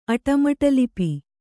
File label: "ಅಟಮಟಲಿಪಿ", Kannada